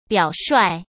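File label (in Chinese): "表率", Mandarin; chinese flashcards; hsk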